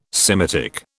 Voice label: "Semitic", British

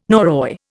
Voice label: "Norroy", Indian

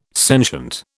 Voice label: "sentient", British

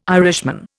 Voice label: "Irishman", Indian

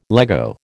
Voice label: "Lego", American

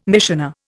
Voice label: "missioner", Indian

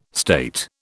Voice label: "state", British

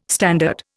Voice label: "standard", Indian

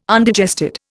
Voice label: "Undigested", Indian

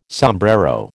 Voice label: "sombrero", American

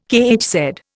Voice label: "kHz", Indian

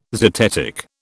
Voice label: "zetetic", British

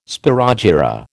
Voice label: "spirogyra", American

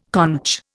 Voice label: "conch", Indian

